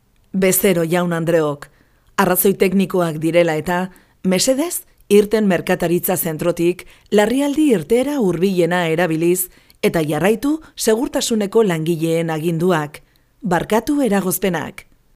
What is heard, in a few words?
locutora euskera basque voiceover